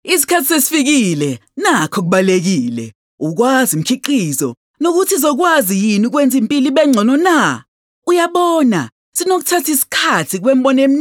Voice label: Zulu HardSell